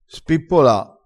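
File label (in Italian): "spippola", dialetto crespinese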